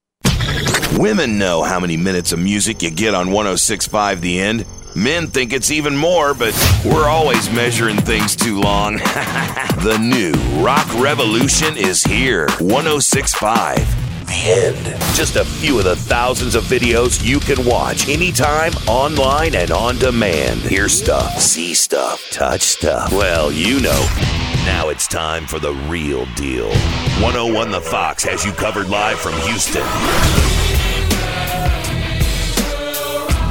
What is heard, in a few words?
men radio imaging